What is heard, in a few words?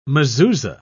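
"mezuzah", British English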